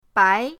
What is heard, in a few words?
"bai2", pinyin